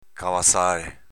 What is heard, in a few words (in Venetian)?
"cavazàle", Veneto; s.m. capezzale